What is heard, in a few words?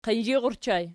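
"qinzhir" ergative plural, Archi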